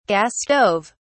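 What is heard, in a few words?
"gas stove", British English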